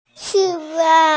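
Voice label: "chi1fan4", Mandarin Chinese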